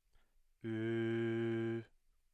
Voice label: higher-mid front rounded vowel